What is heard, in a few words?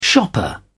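"shopper", British English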